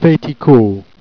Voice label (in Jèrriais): "fait caud", Jèrriais